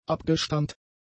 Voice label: "abgestammt", German; adjectives